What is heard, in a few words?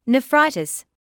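"nephritis", British English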